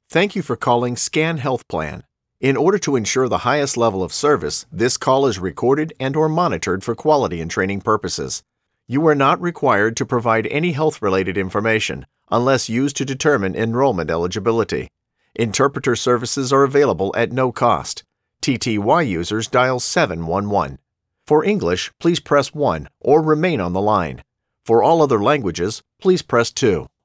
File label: IVR Health Plan; SCAN Health Plan